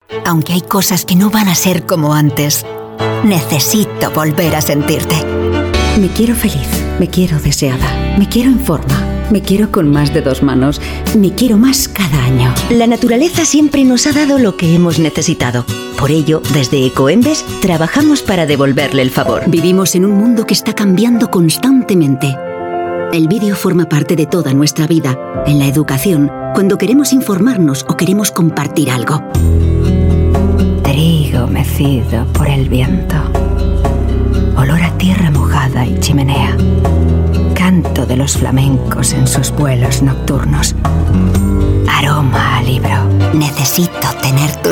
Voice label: Spanish European Voice